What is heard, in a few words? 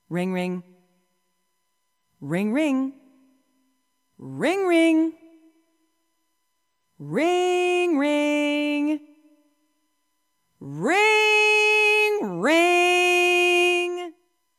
female talking ringtones